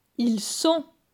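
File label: "Ils sont", French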